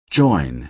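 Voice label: "join", American English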